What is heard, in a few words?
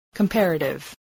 "comparative", english